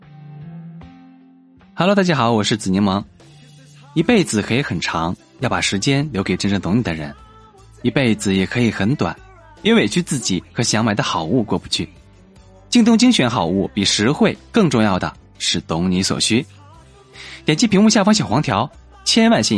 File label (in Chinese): 广告语; 京东精选好物